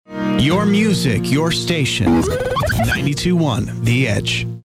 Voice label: sweeper; KTCE; 92.1; PAYSON; Rock/CHR